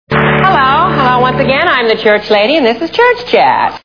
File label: church lady; Saturday Night Live; carvey